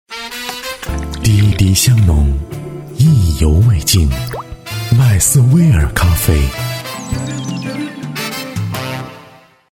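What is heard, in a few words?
Chinese Mandarin, male, commercial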